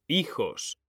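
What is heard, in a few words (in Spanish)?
"hijos", Spanish, male; mekanta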